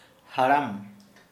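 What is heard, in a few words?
old person, Santali, male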